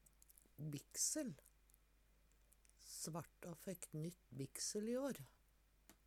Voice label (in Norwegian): biksel, Numedalsmål; Svarta fekk nytt biksel i år.